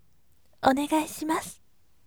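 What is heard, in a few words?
voice "please" Japanese, gentle; onegaishimasu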